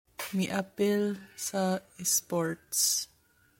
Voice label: Cebuano